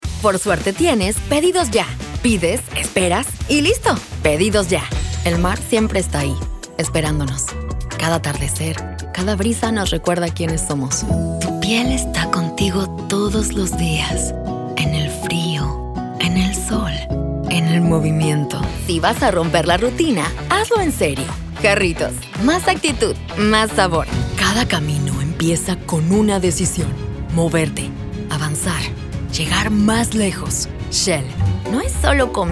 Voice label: Comercial (ESP Neutro)

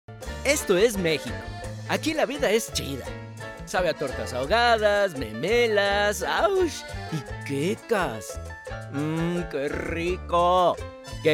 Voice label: Comercial Español

